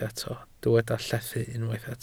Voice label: "llethu", Welsh